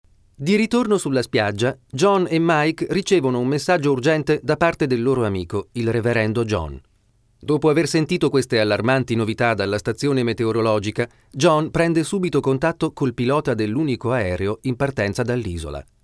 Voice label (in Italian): documentario; Voice over; speaker